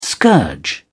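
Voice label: "scourge", British English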